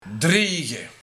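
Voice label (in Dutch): "Driege" Dutch dialect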